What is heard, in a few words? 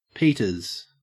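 "peters", Australian English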